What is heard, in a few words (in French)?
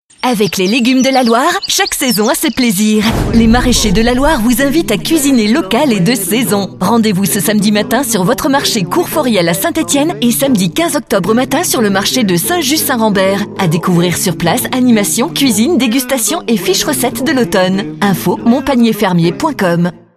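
spot, spots radio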